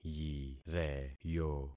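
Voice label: "yireyo", concatenated syllables; Affixing experiment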